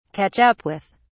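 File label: "catch up with", British English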